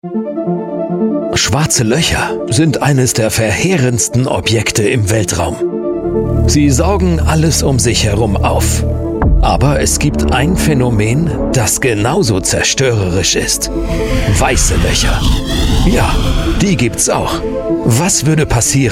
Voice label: german voice over male narrator